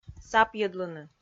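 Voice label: "сапйӧдлыны", Komi, female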